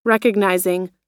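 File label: "recognizing", US English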